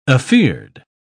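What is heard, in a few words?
"afeared", English, uk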